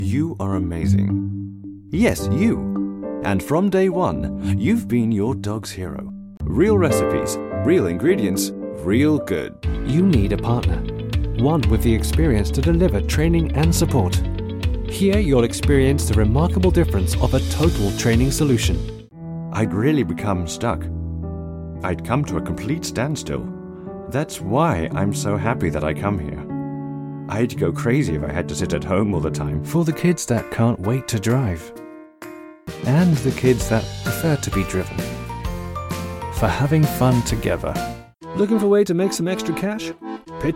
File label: Voice Over Presenter